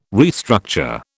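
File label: "restructure", British English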